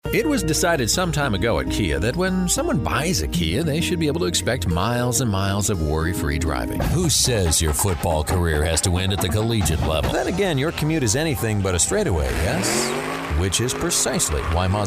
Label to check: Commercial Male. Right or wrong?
Right